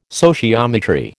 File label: "sociometry", American